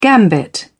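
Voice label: "gambit", English, British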